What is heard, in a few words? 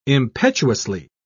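"impetuously", English, British English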